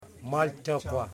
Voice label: "Butt", Yimas